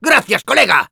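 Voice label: Demoman thanks, Spanish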